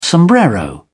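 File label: "sombrero", British English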